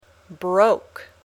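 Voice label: "broke", English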